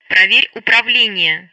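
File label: Speech Russian; Betty; FlightControls; DCS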